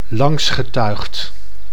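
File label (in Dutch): "langsgetuigd", Dutch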